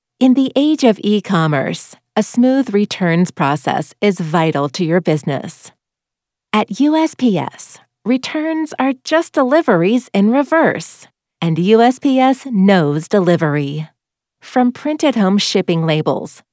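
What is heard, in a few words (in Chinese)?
外籍女声 美式英语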